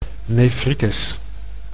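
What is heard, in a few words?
"nefritis", Dutch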